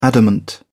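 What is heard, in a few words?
"adamant", English, British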